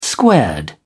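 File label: "squared", British English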